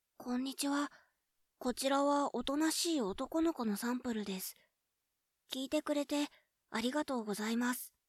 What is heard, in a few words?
boy